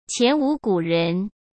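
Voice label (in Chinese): "前无古人", Mandarin Chinese; hsk; chinese-flashcards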